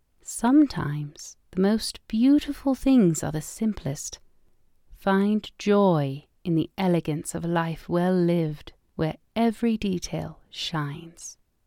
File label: English Formal